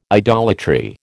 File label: "idolatry", American